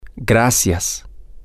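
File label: thank you, Spanish, male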